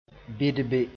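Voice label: "bɩdbe", Mooré; stutter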